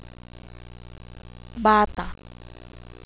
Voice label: glot bata, tagalog consonants